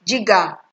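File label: "jiga", Nigerian Pidgin, female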